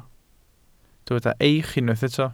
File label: "eich", Welsh